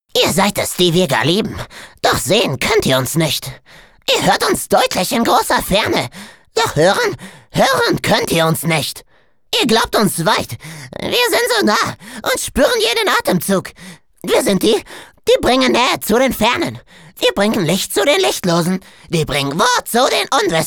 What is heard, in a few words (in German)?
charge, maenner